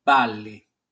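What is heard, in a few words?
"Balli", Sardinian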